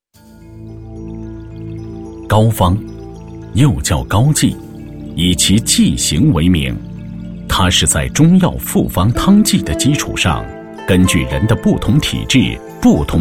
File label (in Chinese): 男 专题, 国语男声配音; 百草中医馆